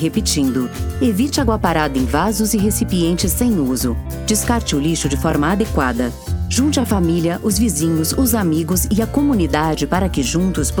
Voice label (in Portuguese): Spot Campanha de Combate ao Mosquito Sudeste